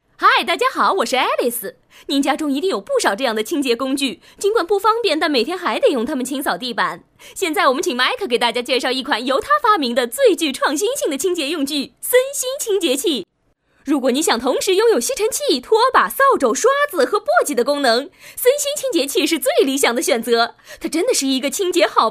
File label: VOICES ENGLISH Male Adult; KERSOUND